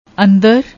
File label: "andar", Punjabi; ਅੰਦਰ